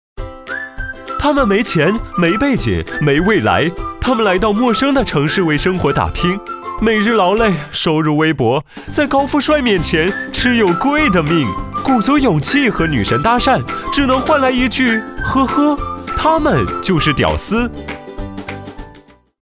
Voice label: 人设介绍 配音; 飞碟说; 屌丝的定义

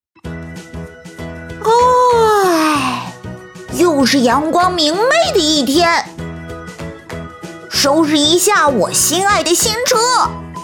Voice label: 男童

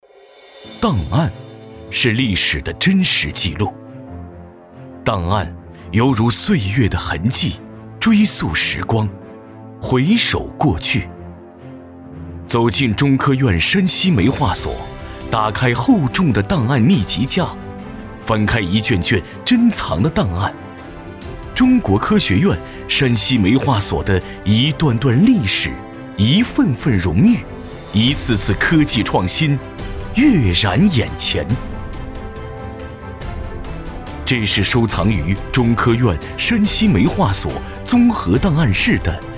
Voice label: 男 纪录片; 档案

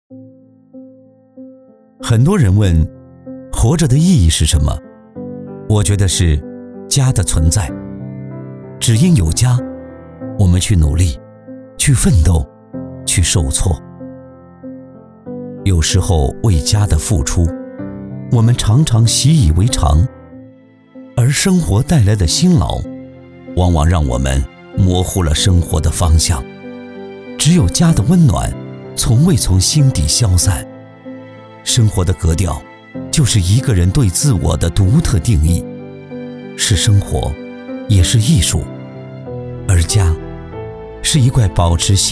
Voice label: 男 走心旁白; 木马设计

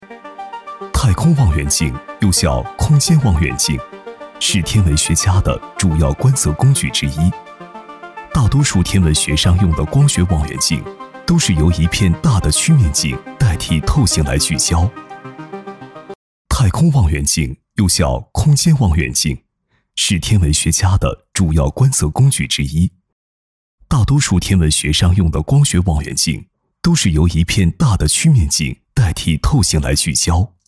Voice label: 男 专题; 太空望远镜

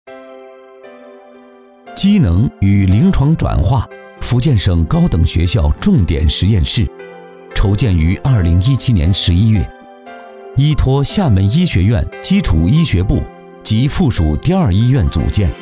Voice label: 男 汇报; 机能与临床转化

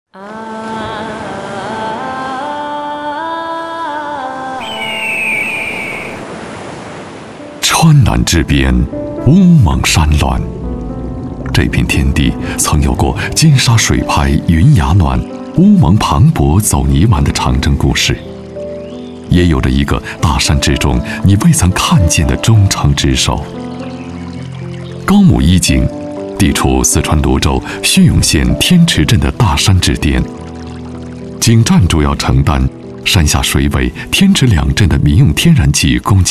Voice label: 男, 专题; 大山中的忠诚执守

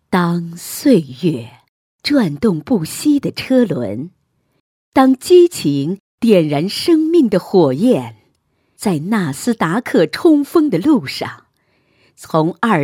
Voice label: 女 诗歌; 生命的火焰

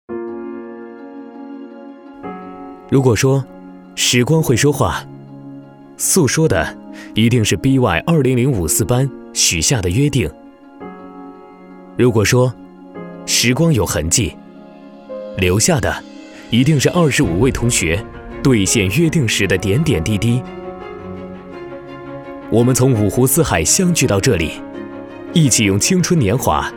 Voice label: 男 年轻独白

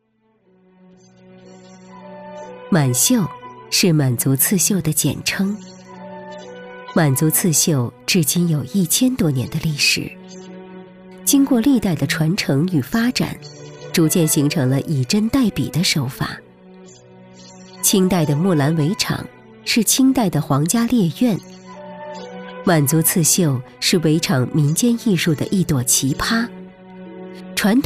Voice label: 女 纪录片 大气文化感 非遗项目 满绣

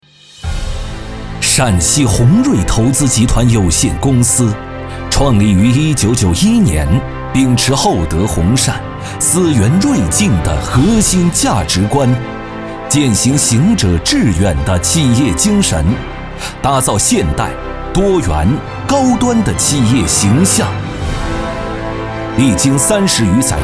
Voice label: 男 宣传片 大气激情

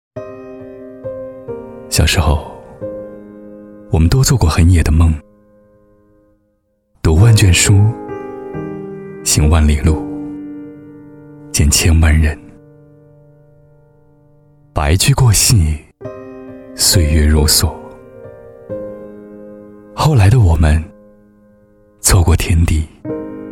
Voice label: 男, 走心; 阿蓉小时候

